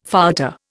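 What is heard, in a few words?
"fouetté", Indian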